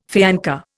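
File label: "fiancé", Indian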